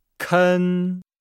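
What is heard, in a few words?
"ken", Chinese; Nihao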